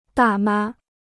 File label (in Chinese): "大妈", Chinese